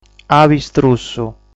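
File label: "Abistrussu", Sardinian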